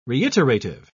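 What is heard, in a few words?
"reiterative", British English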